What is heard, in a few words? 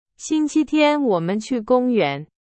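Chinese, example sentence; hsk1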